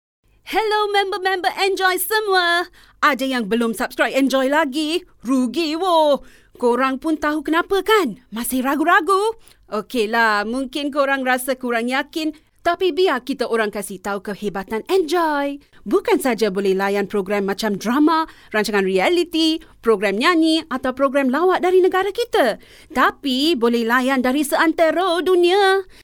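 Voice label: Bahasa Malaysia Conversational Relatable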